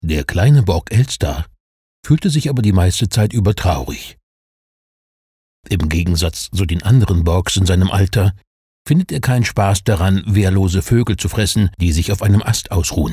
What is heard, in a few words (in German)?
kinderhorbuch; Sprecher in Berlin, Hamburg, Leipzig; Muttersprache Deutsch; der kleine bork